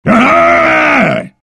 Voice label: ability warcry; Dota 2; sven

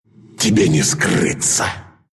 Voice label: cast, ru; Dota 2

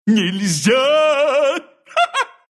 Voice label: deny, ru; Dota 2

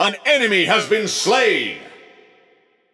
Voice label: Announcer Match Slain Enemy; BombKing

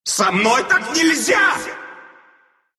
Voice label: death, ru; dota2

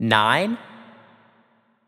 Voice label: Announcer Count; Paladins

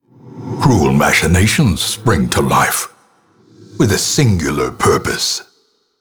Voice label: narration; Darkest Dungeon; trap

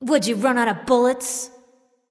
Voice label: Taunt Directed; Artemis Recon; Apollo

